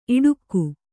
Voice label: "ಇಡುಕು", Kannada